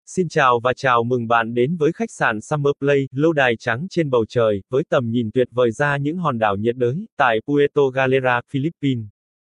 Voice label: vietnamese welcome

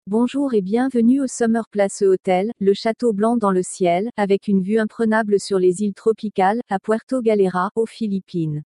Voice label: french welcome

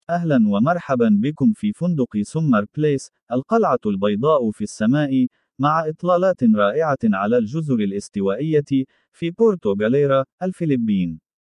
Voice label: Arabic welcome

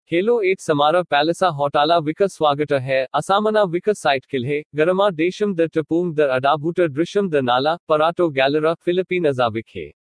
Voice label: Punjabi welcome

